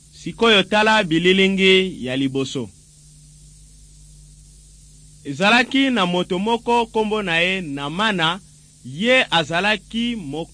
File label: nt drama, lingala; on trial for god